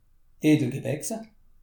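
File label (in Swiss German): "edelgewachse", Alsatian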